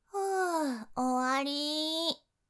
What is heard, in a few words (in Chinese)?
呼ー结束ー, 女生为你进行健身计数的语音, 少女